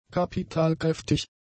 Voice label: "kapitalkräftig", German; adjectives

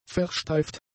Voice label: "versteift", German; adjectives

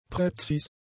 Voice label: "präzis", German; adjectives

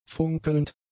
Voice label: "funkelnd", German; adjectives

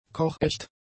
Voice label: "kochecht", German; adjectives

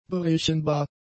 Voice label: "berechenbar", German; adjectives

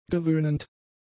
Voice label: "gewöhnend", german; adjectives

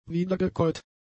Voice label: "wiedergekäut", German; adjectives

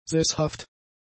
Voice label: "sesshaft", German; adjectives

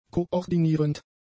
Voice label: "koordinierend", German; adjectives

